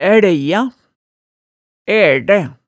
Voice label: "ælja", hemsedalsmaalet tale

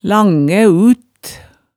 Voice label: "langæ ut", hemsedalsmaalet tale

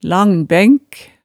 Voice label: "langbænk", hemsedalsmaalet tale

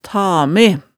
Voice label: "tame", hemsedalsmaalet tale

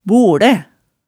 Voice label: "bolæ", hemsedals maalet tale

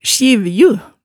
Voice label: "sjivju", hemsedalsmaalet

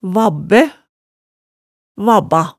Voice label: "vabbæ", hemsedalsmaalet tale

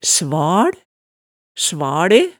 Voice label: "sval", hemsedalsmaalet

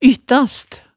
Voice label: "yttast", hemsedalsmaalet, tale

